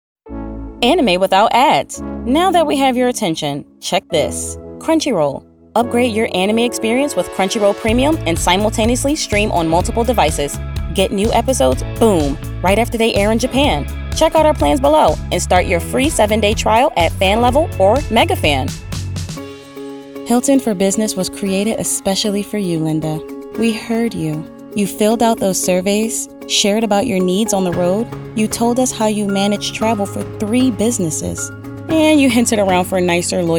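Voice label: corporate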